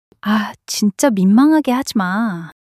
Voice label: shy